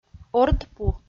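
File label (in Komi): "ордпу", Komi, female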